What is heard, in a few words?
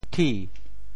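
"thi2", Teochew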